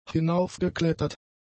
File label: "hinaufgeklettert", German; adjectives